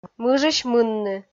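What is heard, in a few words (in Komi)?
"мыжысь мынны", Komi, female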